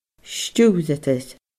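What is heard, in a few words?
"stiùireadair", Scottish Gaelic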